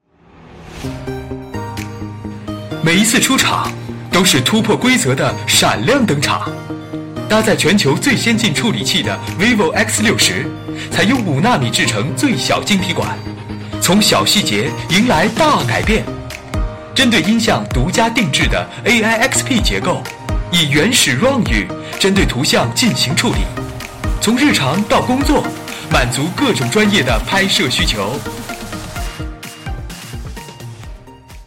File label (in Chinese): 广告语